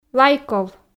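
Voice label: "лайков", Komi, female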